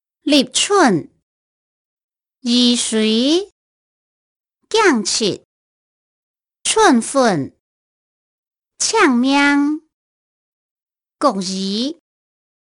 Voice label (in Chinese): 海陸腔